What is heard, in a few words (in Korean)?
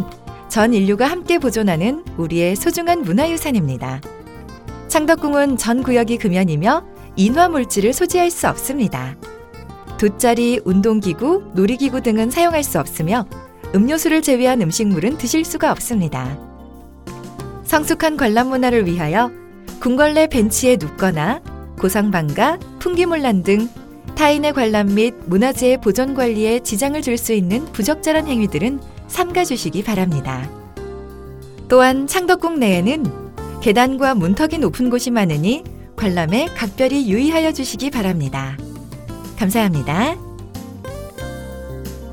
안내; 창덕궁